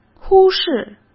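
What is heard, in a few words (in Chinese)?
"忽视", Mandarin Chinese; hsk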